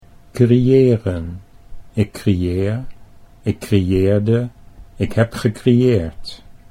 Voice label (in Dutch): creëren ik ik, Dutch